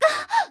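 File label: voice delay; Goddess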